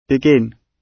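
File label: "begin", British English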